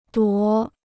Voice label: "夺", Chinese

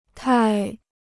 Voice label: "太", Chinese

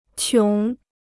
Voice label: "穷", Chinese

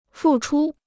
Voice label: "付出", Chinese

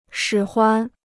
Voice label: "使唤", Chinese

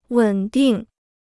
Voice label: "稳定", Chinese

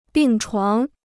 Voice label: "病床", Chinese

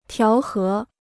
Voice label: "调和", Chinese

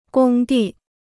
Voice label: "工地", Chinese

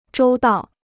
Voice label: "周到", Chinese

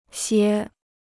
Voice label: "歇", Chinese

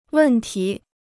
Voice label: "问题", Chinese

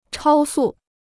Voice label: "超速", Chinese

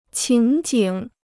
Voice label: "情景", Chinese

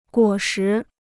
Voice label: "果实", Chinese

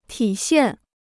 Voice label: "体现", Chinese

